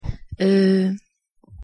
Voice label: pronunciation fr "euh"